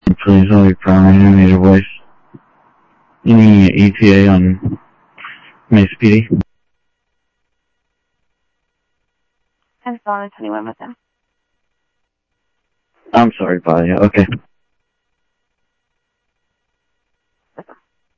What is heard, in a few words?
Sheriff Common, scanner audio trunk; MCSO - Info; ThompsonPeakScot